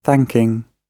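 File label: "thanking", British English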